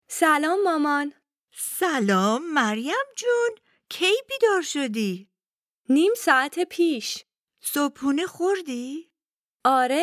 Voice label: Dialogue lesson Farsi